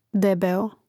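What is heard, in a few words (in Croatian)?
"debeo", Hrvatski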